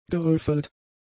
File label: "gerüffelt", German; adjectives